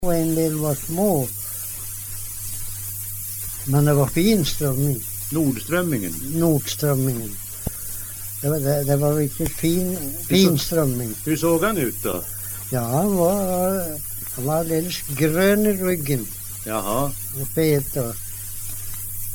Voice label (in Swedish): dialekter; Folkminnen; Svenska sagor